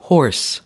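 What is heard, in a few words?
"hoarse", American English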